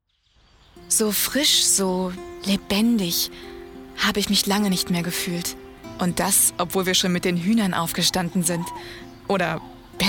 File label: imager